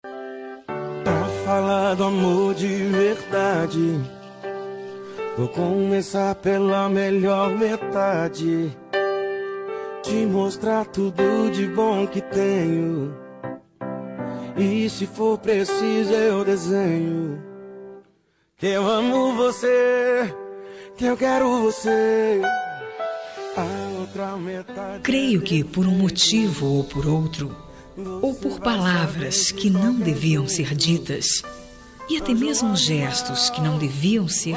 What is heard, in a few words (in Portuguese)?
reconciliação, Reconciliação – Voz Feminina